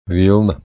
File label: "vilna", Latvian